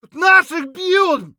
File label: friendly down; Escape from Tarkov; scav6